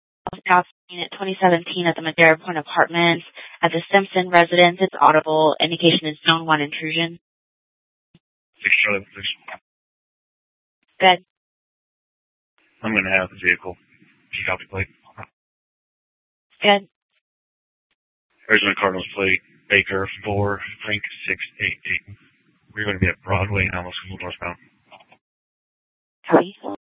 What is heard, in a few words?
Police Department Dispatch, scanner; Mesa; TOPAZ Regional Wireless Cooperative (TRWC); Mesa Simulcast; Fiesta